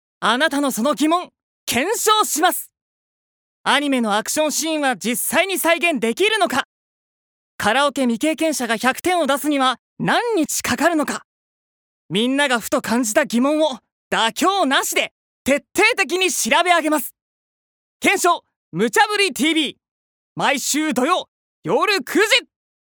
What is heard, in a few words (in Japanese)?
ナレーション